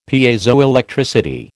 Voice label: "Piezoelectricity", American

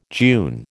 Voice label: "June", American

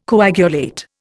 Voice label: "coagulate", Indian

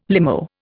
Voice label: "limo", Indian

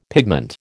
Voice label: "pigment", American

